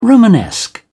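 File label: "romanesque", English, British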